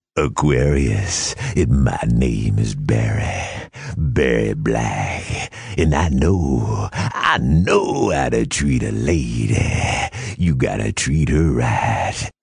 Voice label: deep american